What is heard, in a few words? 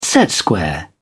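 "set square", British English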